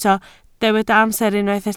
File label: "amser", Welsh